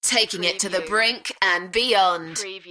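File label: UK female, DJ Vocal; beanstalk-audio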